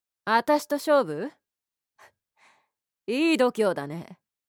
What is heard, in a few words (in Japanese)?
lady tsuyoki